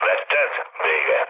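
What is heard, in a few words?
chat, speech hungarian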